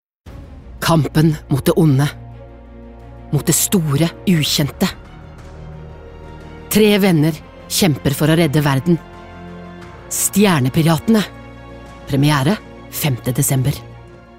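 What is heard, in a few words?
no movietrailer, Norwegian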